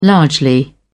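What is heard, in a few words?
"largely", British English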